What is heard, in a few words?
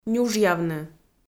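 "нюжъявны", Komi, female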